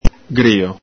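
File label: "grio", Venetian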